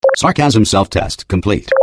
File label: sarcasm core